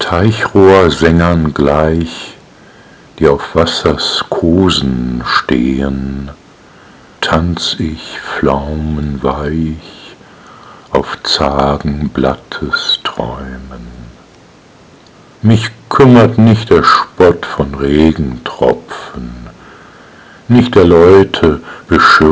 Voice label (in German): Die weibliche Stimme